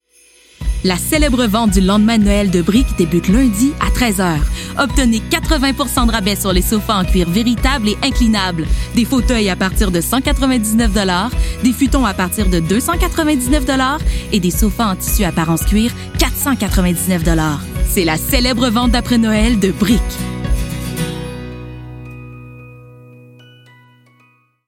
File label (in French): Publicité; Brick